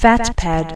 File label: fat pad, announcer